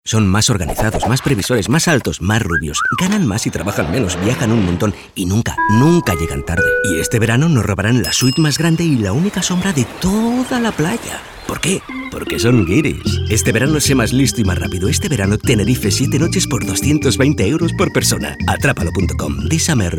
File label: male spanish travel comercial; ideasonora